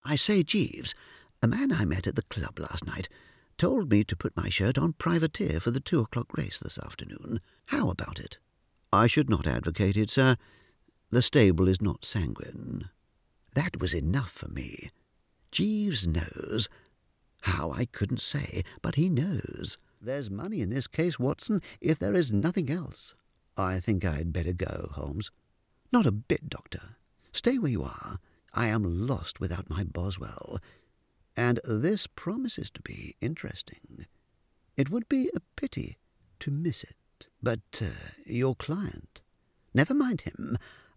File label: Storytelling